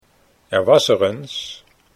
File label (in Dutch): er was ereens, Dutch